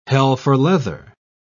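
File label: "hell-for-leather", British English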